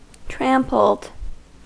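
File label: "trampled", US English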